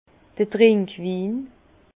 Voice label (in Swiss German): "trinkwin", Bas Rhin; vin; vin de table